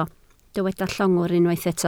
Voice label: "llongwr", Welsh